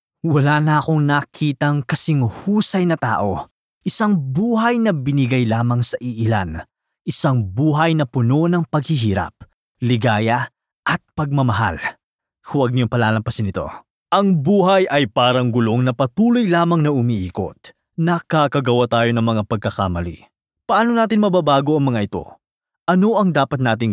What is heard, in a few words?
tagalog narration